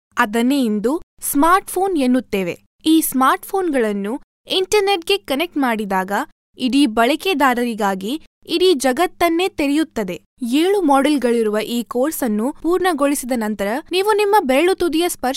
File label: KANNADA FEMALE